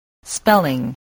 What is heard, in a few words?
"spelling", English voice